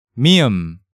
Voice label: "Mieum", Hangeul sounds; DigitalSoju

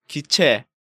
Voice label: "Giche", Korean

